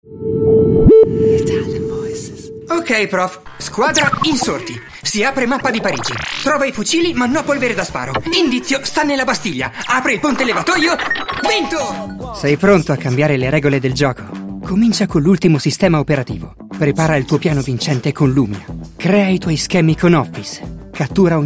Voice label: ITALIAN VOICES Young Genuine, male voices

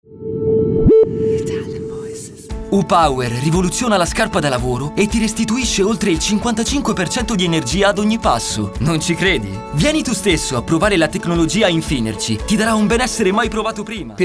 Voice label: ITALIAN VOICES Friendly Fresh, male voices

